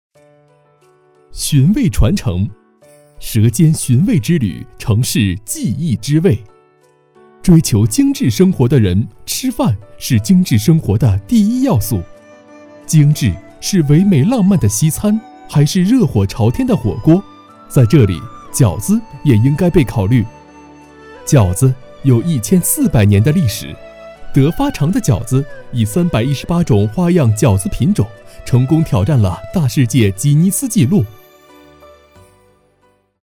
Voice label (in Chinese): 男 专题 轻快活泼; 饺子